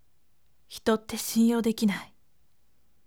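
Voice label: voice, firm; hito shinyou dekinai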